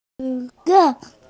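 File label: "zhi2ye4", Mandarin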